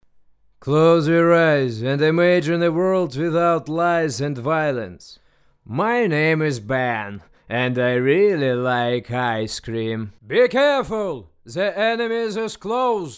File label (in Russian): anglijskij